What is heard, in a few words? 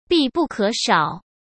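"必不可少", Mandarin Chinese; hsk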